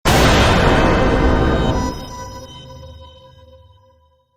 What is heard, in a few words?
mono objection; V3